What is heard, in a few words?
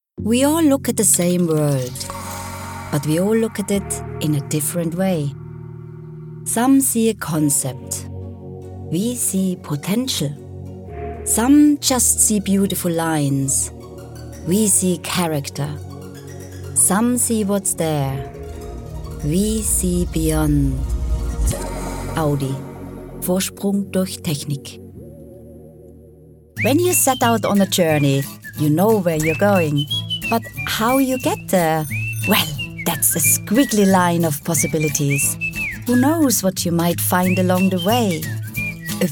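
English Commercial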